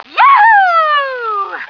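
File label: yahoo, female; stars; taunts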